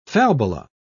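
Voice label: "falbala", British English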